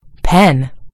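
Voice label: "pen", American English; gcompris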